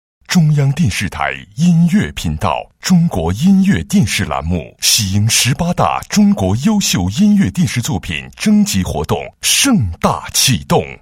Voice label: 男声 广告; 中国音乐电视

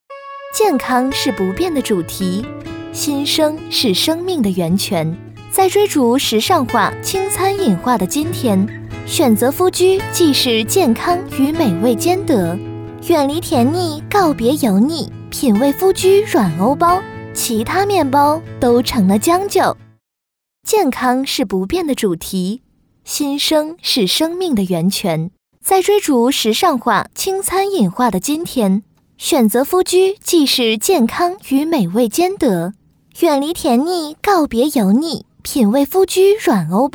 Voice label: 女-广告-温馨唯美

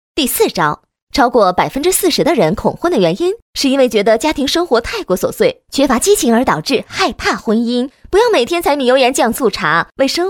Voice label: 女 恐婚, 国语女声配音; 专题广告; 飞碟说

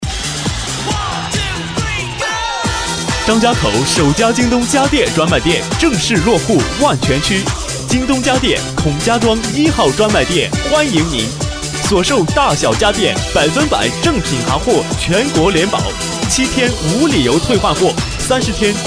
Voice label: 男 广告 家电, 国语男声配音; 京东家电

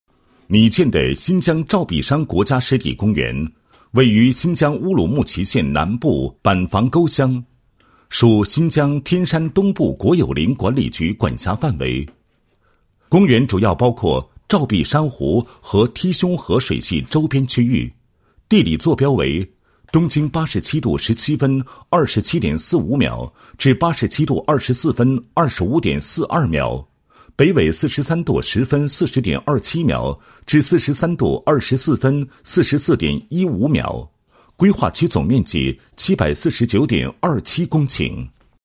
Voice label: 男 解说词 国家湿地公园申报片解说词; 照壁山

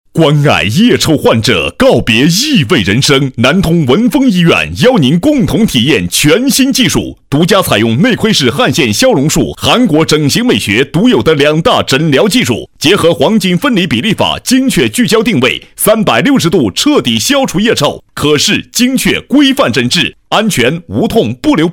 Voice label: 医疗广告, 男; 新闻广告专题颁奖; 南通腋臭

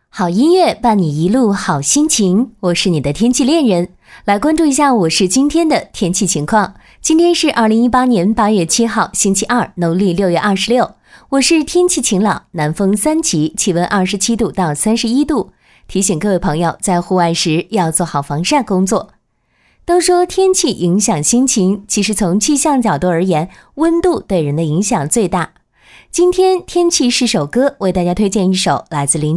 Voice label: 女 广播 天气播报主持人风格

